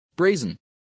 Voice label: "brazen", English

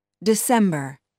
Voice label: "december", English

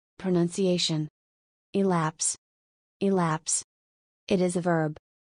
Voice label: "Elapse" Pronunciation

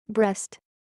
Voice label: "breast", English

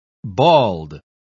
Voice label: "bald", English

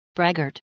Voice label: "braggart", English

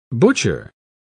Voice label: "butcher", English

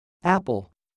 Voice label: "apple", English

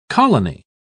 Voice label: "colony", English

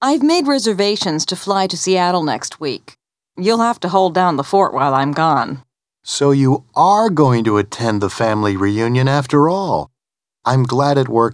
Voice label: dialog

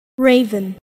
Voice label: "Raven", English

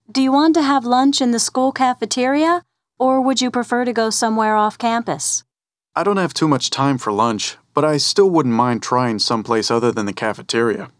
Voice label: dialog

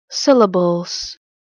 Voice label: "Syllables", English

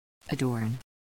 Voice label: "adorn", English

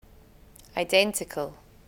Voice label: "identical", English

